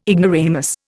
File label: "ignoramus", Indian